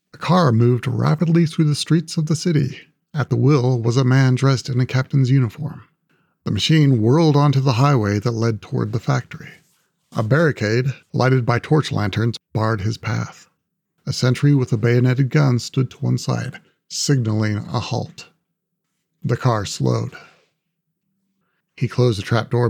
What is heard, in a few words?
Narration - 3rd Person; Normal Accent